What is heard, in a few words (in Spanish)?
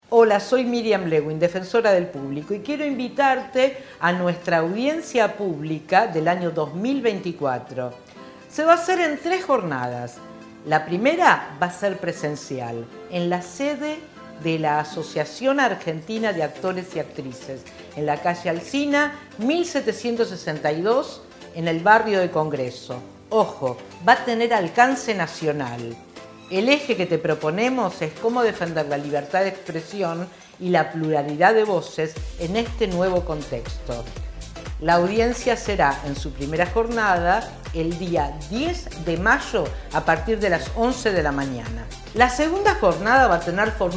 spot radial